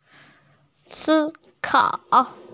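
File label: "si1kao3", female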